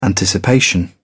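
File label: "anticipation", English, British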